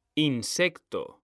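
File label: "insecto", Spanish, male; mekanta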